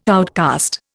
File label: "outcast", Indian